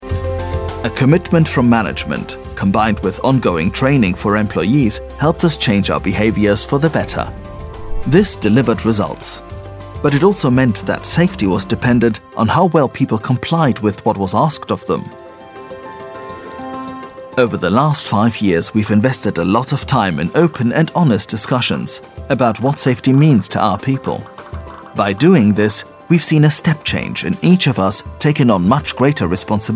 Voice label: English

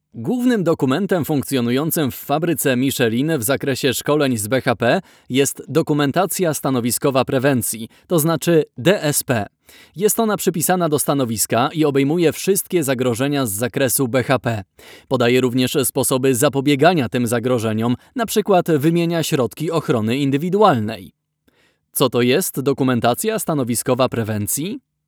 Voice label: polish

